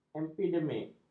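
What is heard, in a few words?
"epidemik", Malay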